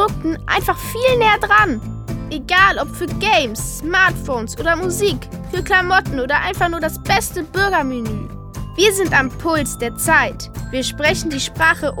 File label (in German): Teenager Stimme